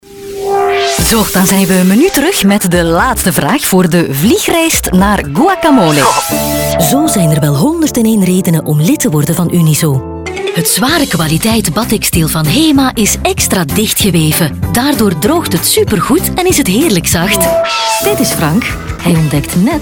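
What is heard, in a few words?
Flemish, female, commercial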